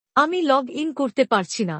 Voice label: I can't log in, Bangla; Technology

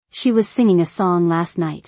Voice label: She was singing a song last night. English; Mixed Sentences

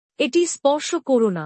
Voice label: "Don't touch this", Bangla; Basic Greetings and Courtesy

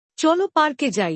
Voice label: Let’s go to the park, bangla; Time and Routine

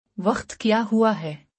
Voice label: What time is it - urdu; Time and Routine

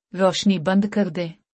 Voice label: Turn off the light urdu; Time and Routine

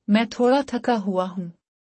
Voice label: I am a little tired, Urdu; Expressing Feelings

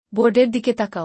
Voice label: Look at the board.-Bangla; Time and Routine

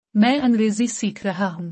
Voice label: I am learning English urdu; School and Learning

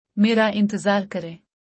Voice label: Wait for me - urdu; Time and Routine

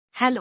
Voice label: "Hello", Bangla; Basic Greetings and Courtesy

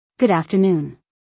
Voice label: "Good afternoon", English; Basic Greetings and Courtesy

